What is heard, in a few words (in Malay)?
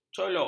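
"colok", Malay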